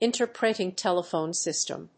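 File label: "interpreting telephone system", English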